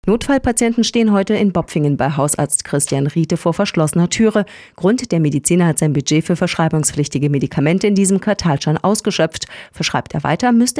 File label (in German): Radio; 8 Uhr; Praxis; Med.budget